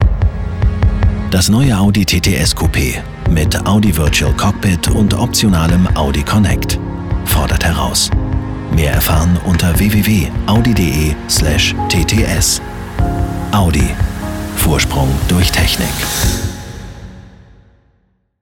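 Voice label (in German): werbung, deutsch männer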